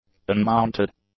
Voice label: "unmounted", English; adjectives